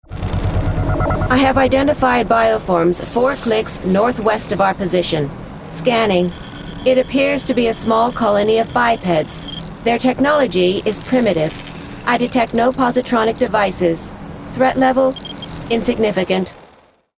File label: Gaming Android; Android Character